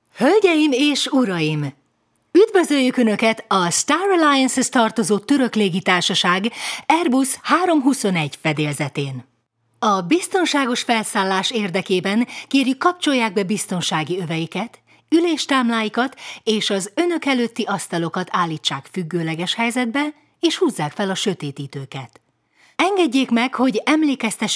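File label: Hungarian, Corporate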